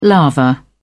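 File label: "lava", British English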